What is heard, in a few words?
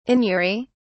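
"anury", British English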